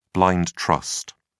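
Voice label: "blind trust", British English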